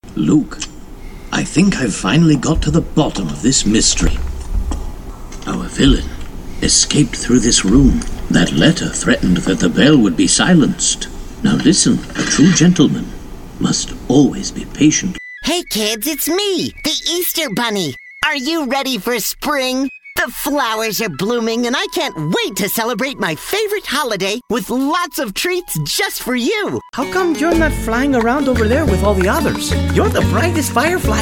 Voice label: Animation